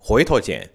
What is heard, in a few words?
"Huitou jian", Chinese